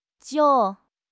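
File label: "jiu3", Mandarin Chinese; HSK3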